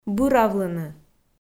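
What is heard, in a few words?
"быравлыны", Komi, female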